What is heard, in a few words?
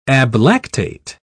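"ablactate", British English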